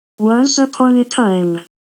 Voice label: Robot, 141 BPM

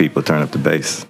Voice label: LoFi Phrases, LoFi Vocals; Vengeance Samples

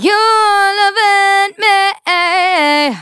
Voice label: phrase female

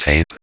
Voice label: Vocoder Words; Vengeance Samples

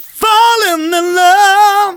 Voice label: Phrase Male; Vengeance Samples

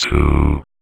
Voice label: Vocoder Words; Vengeance Samples

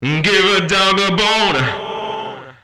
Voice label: male fx vox, vocal house, g; PS-28 Vocal House Samples

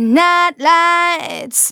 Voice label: Phrase Female; Vengeance Samples; Phrases

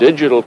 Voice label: LoFi Words, LoFi Vocals

